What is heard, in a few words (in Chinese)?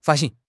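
"发行", Mandarin Chinese; HSK6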